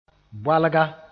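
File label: "boalga", Mooré; clay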